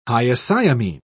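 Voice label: "hyoscyamine", British English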